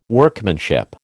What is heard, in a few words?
"Workmanship", American